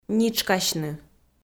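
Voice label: "нитшкасьны", Komi, female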